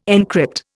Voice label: "encrypt", Indian